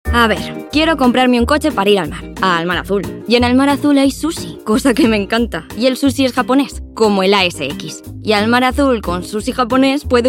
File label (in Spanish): Personaje tirado